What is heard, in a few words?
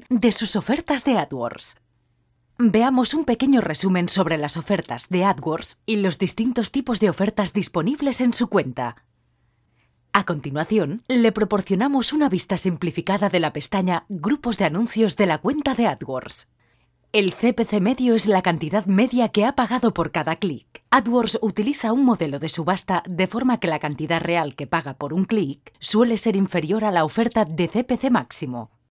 locuciones curso centralita spanish voiceover, e-learning courses spanish voiceovers; Demo e-learning course; Spanish female voiceover; "Google Adwords"